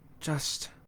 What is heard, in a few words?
"just", voice